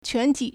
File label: "quan2 ti3", Chinese; 全体; 全體; quántǐ; pronoun; all; entire